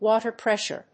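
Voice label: "WATER PRESSURE", English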